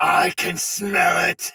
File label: Sight Lost Shout Soldier Sword Agent Male, Battle; Dialog; Barks; Melee; Sinecure